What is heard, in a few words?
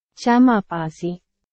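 Burmese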